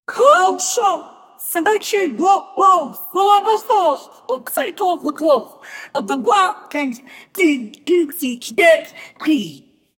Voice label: priest male dialogue